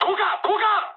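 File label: bailout mood high, speech japanese; War Thunder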